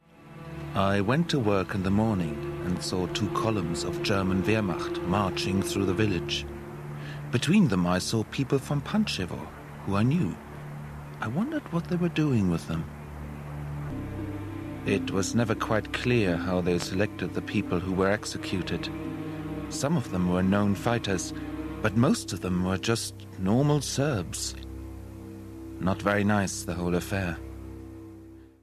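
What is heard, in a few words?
German English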